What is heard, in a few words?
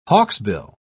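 "hawksbill", British English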